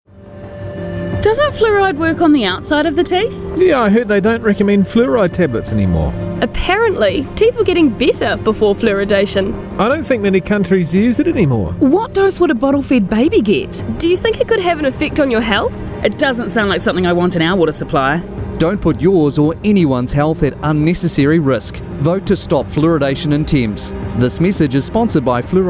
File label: radio ad